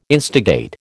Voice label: "instigate", American